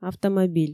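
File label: "автомобиль", Komi, female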